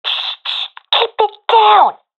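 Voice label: request quiet, professional female; vo; Counter Strike Model Pack; CS:GO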